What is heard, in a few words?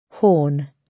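"horn", British; Flora and fauna, agriculture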